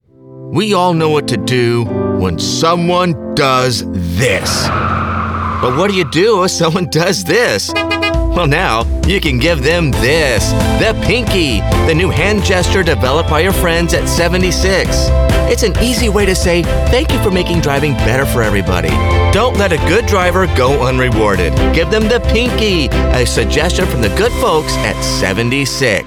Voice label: Commercial